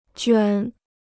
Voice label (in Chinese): "卷", Chinese